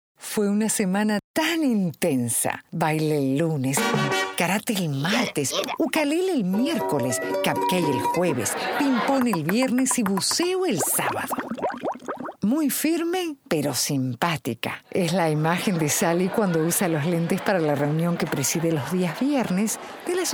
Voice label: spanish latin american audiobooks